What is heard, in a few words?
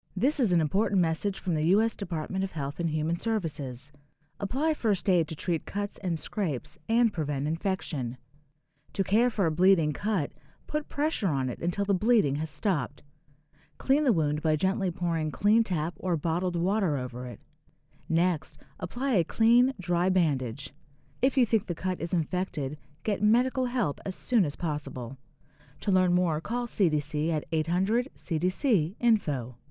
psa disaster wound care female voice